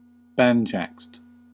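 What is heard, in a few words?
"banjaxed", US English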